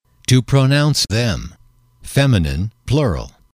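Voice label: "to pronounce it", English, male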